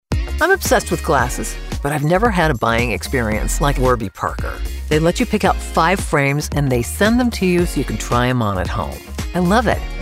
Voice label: Commercial